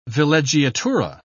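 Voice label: "villeggiatura", English, British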